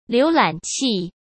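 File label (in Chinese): "浏览器", Mandarin Chinese; chinese flashcards; hsk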